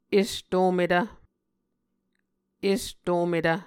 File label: "estometv", Muscogee